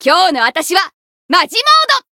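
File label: Voice encounter, Japanese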